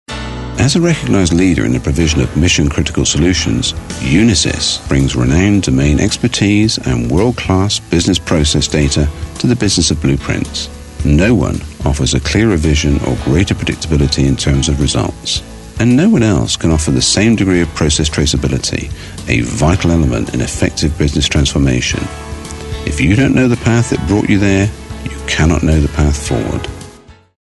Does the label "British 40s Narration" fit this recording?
Yes